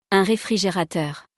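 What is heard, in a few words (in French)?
"Un refrigerateur", French; vocabulaire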